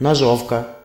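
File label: saw desc, Russian; tuxpaint-stamps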